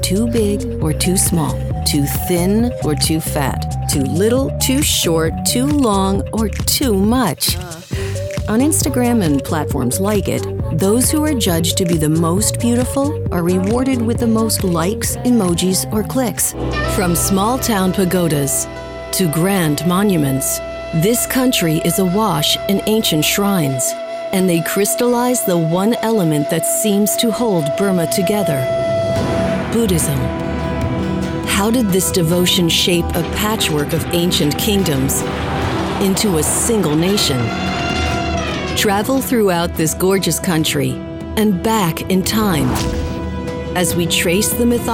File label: narration women